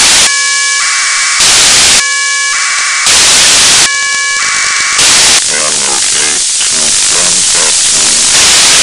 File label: FM voice